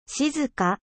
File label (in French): "silencieux", 静か